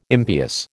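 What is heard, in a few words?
"impious", American English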